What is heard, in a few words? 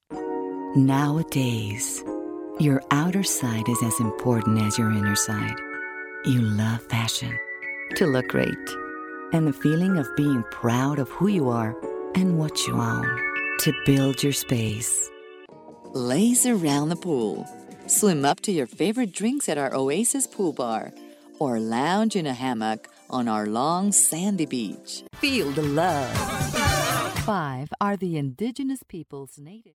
english